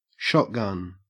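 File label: "shotgun", Australian English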